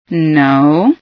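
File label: "noo", female scientist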